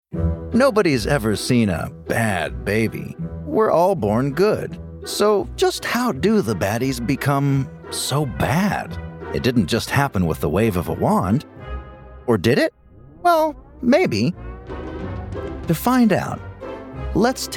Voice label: Narration EN; univers enfant; Little James Hook

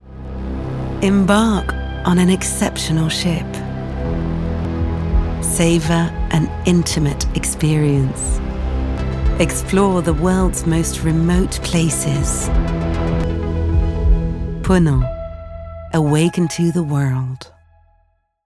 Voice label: ENG UK; PONANT

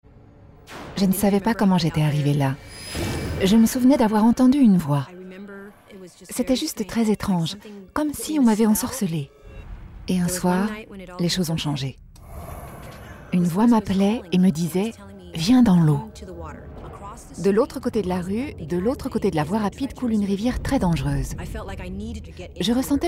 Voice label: VOICE OVER

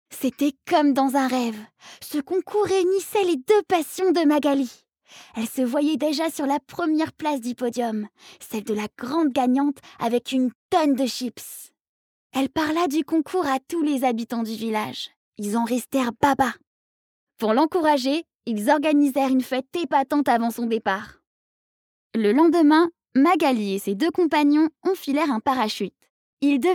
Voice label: Trailer; les crottes de nez

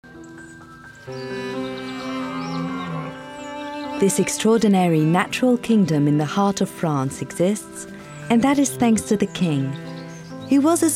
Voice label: UK; Chambord

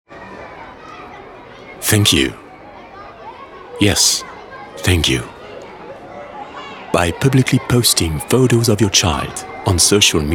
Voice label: VO English; voix-off; ASSO CAMELEON

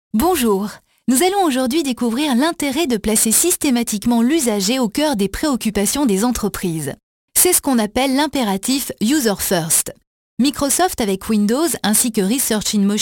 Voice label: E learning; N-Tech2

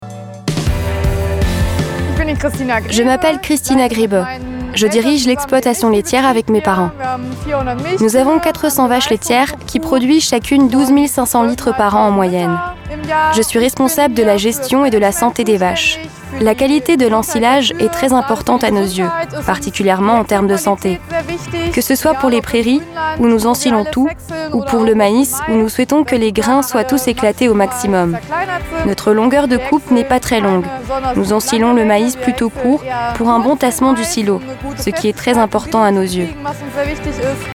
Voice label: voice over; John Deere

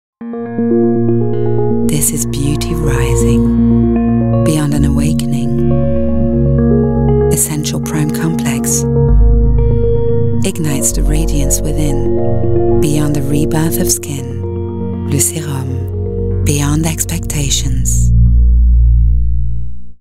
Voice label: ENG Sensual mysterious voice; Clé De Peau Beauté; Le Sérum

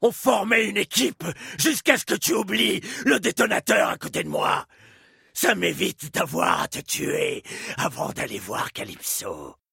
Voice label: INTENSE

